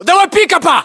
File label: landmark near truck pickup, battlechatter ru